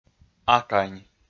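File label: "акань", Komi, male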